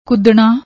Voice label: "kudna", Punjabi; ਕੁੱਦਣਾ

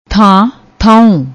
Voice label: "than", Punjabi; ਥਾਂ

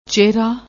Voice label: "chehra", Punjabi

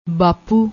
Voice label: "baapu", Punjabi

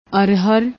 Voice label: "arhar", Punjabi; ਅਰਹਰ

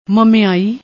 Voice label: "mameaayi", Punjabi; ਮਮਿਆਈ

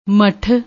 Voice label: "matth", Punjabi

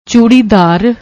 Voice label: "choodidar", Punjabi; ਚੂੜੀਦਾਰ

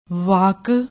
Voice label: "wakk", Punjabi; ਵਾਕ

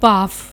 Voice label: "bhaaf", Punjabi

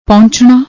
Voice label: "pohanchna", Punjabi

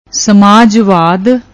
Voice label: "ਸਮਾਜਵਾਦ", Punjabi

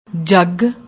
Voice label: "jagg", Punjabi

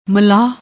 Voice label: "mlaah", Punjabi; ਮਲਾਹ

